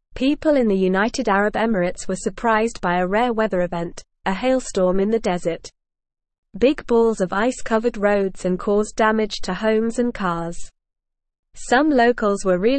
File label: English Upper Intermediate NORMAL Reading; English Newsroom; Rare hailstorm surprises UAE residents causes dam